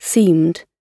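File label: "seemed", British English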